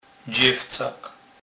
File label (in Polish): "dzewcak", kurpiow